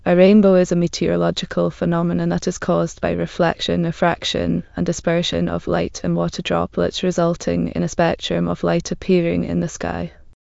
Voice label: speaker, en GB; piper voices; vctk